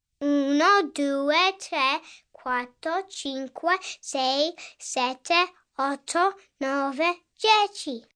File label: Numbers It 1 to 10, Foreign, 1 boy, 8 yrs, Italian counting 1-10, human voice, kid, child; speak, talk